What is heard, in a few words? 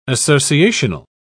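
"associational", British English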